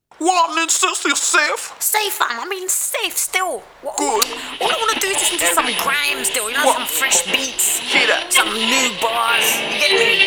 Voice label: RADIO ADVERT; grimeonline